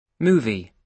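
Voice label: "movie", British English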